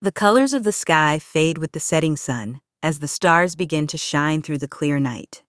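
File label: American English; en-US; iOS Sounds; simone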